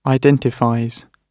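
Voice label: "identifies", British English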